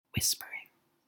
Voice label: Whispering example